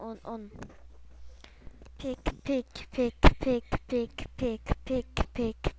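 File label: "pick", spoken word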